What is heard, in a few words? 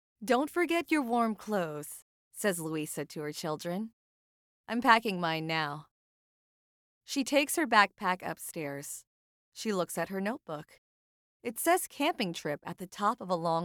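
English American, female, voice over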